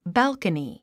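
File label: "balcony", English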